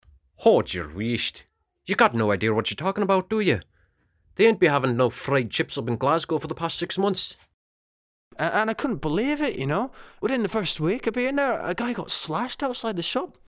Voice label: character SCOTTISH